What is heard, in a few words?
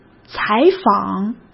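"采访", Mandarin Chinese; hsk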